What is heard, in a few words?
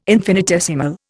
"infinitesimal", Indian